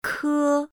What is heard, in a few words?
"k", Chinese pinyin initial